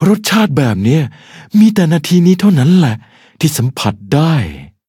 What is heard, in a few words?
level up, th; Cookie Run